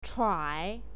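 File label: "chuai", Mandarin Chinese, third tone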